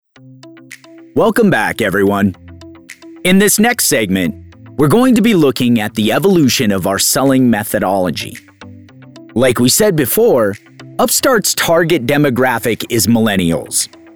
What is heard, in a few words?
voiceover e-learning